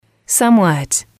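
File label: "somewhat", English, female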